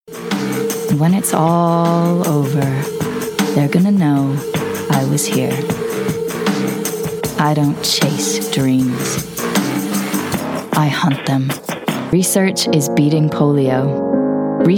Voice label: Commercial Reel